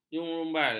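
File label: "jerumbai", Malay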